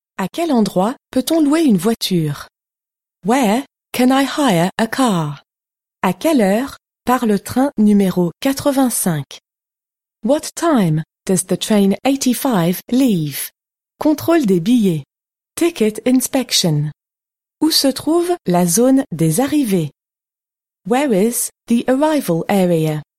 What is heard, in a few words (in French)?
Cours d'anglais pour francophones